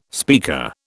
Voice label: "speaker", British